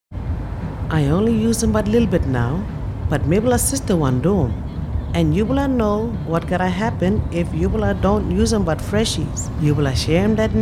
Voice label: Kriol; Look after your blood campaign